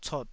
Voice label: "cot3", taiwanese

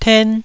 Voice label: "ten1", taiwanese Syllables

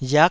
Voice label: "riak9", taiwanese

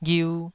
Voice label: "ghiu2", Taiwanese syllable

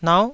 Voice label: "nau9", taiwanese

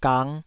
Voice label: "gang5", Taiwanese syllable

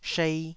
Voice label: "shei2", taiwanese

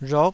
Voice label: "rhok9", taiwanese

